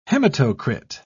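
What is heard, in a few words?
"hematocrit", British English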